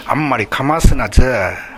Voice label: "kamasu", minamiyamagata

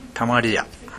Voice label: "tamariya", minamiyamagata

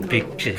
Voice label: "bikki", minamiyamagata; 蛙。〈べっき〉とも。

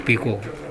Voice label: "bego", minamiyamagata

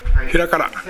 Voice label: "herakara", minamiyamagata

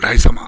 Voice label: "raisama", minamiyamagata

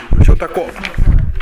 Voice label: "yotako", minamiyamagata

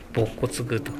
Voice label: "bokko", minamiyamagata

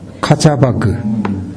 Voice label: "kachabagu", minamiyamagata

